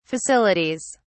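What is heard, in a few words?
"facilities", British English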